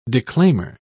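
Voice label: "declaimer", British English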